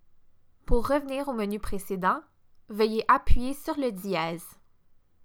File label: French Previous Menu